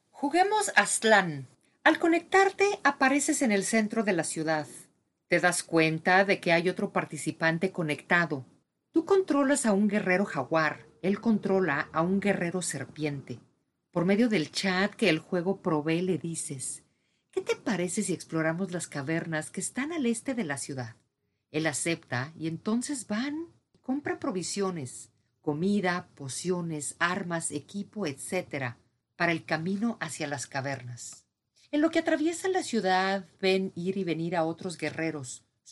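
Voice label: Latin American Spanish, general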